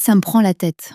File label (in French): ça me prend la tête, common french words